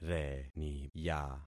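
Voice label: "reniya", concatenated syllables; Affixing experiment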